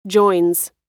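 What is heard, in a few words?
"joins", American English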